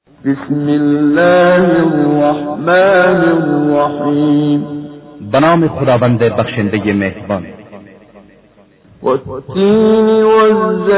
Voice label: Surah Farsi; Menshay Translation